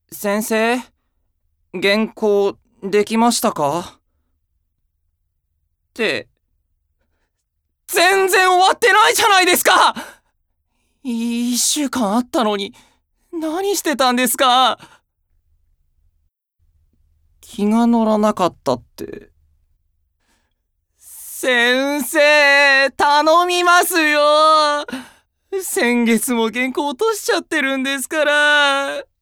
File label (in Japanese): 気弱な編集者